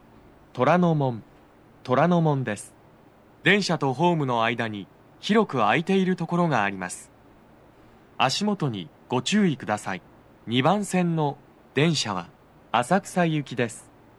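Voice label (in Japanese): 到着放送, 浅草行, 男声, BOSE薄型での収録です。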